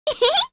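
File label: Vox Happy, korean; Hanus; kingsraid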